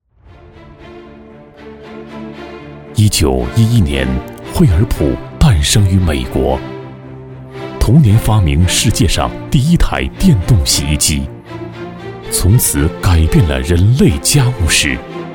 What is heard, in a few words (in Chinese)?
男 磁性浑厚; 惠而浦-家电